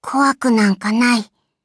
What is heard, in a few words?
upgrade, ja; cookie run